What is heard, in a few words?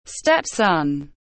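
"Step son", English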